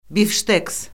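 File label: "bifşteks", Azerbaijani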